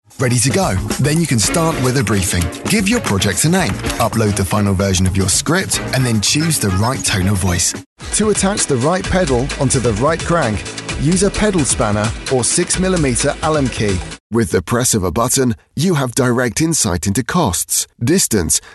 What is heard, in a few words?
explainer vo reel